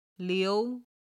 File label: "liu2", Chinese